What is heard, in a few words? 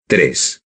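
"tres", Spanish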